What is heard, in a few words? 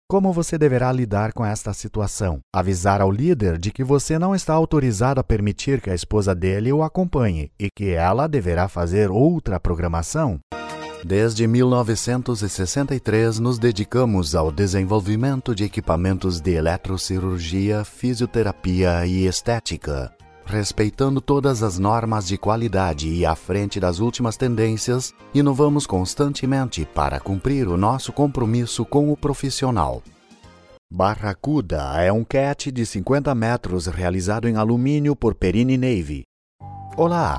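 Brazilian Portuguese, male, various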